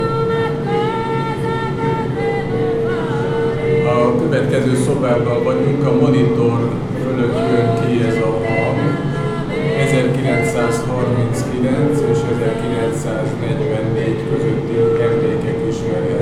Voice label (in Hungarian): bunkart enekes propaganda szoveg